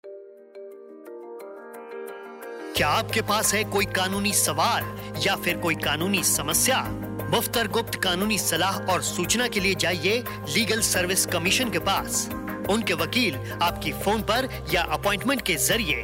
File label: HINDI